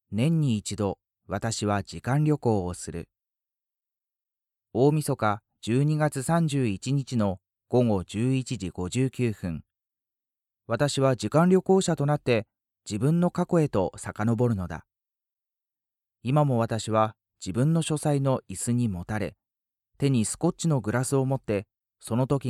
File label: male